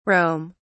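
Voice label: "rome", English, British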